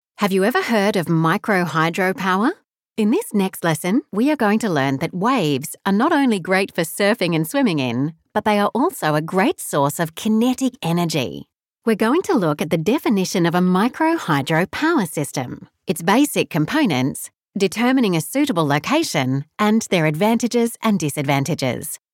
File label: australian voice elearning